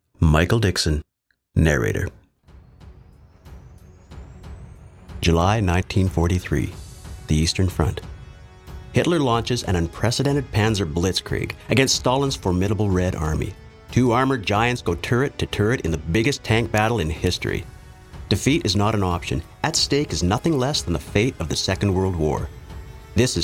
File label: NarrationDemo